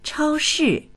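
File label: "chao1shi4", Chinese; 超市; スーパーマーケット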